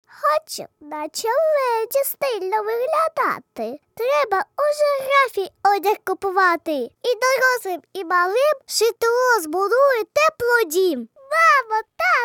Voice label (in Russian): devochka 5 let Ua